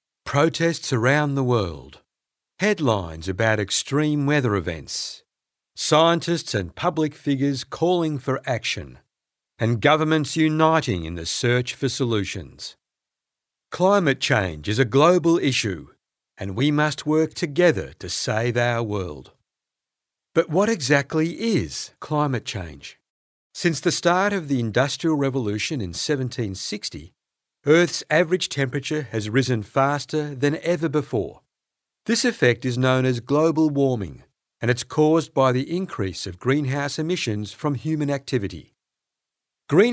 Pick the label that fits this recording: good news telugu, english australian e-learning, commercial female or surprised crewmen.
english australian e-learning